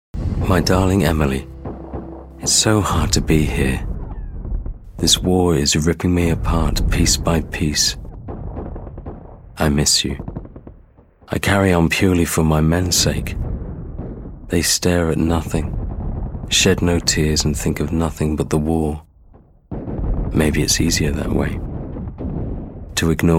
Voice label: narration WW1